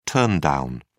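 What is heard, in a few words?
"turndown", British English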